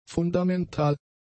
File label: "fundamental", German; adjectives